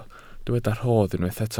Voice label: "rhodd", welsh

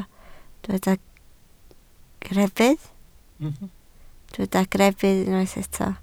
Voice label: "greddf", Welsh

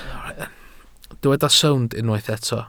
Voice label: "sownd", Welsh

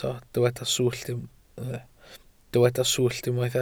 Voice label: "swllt", welsh

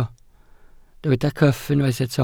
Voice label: "cyff", Welsh

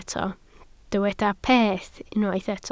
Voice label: "peth", Welsh

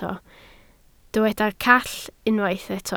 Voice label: "call", Welsh

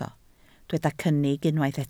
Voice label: "cynnig", Welsh

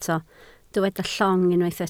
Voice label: "llong", Welsh

